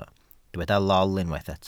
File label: "lol", Welsh